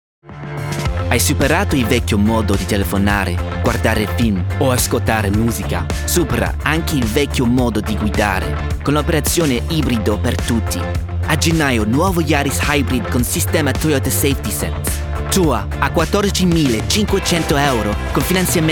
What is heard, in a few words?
Italian